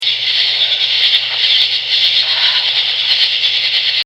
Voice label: whisper evp

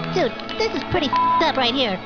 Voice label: bleeped; South Park

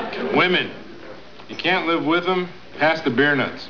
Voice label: women

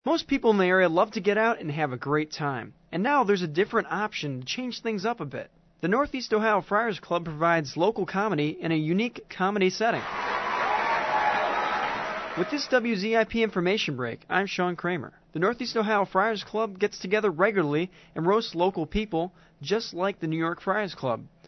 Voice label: commercial

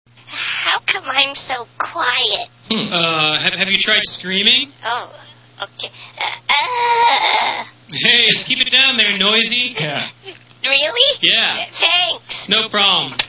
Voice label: quiet caller

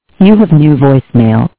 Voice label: VOICEMAIL

